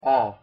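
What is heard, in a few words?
"ㅓ", Korean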